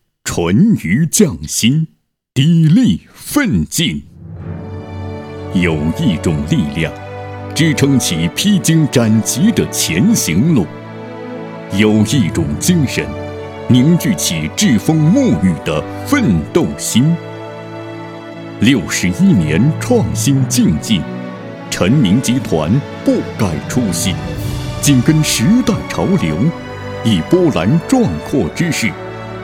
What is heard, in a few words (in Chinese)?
企业宣传, 激情大气